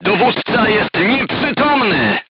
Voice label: voice message commander contused, crew tanks polish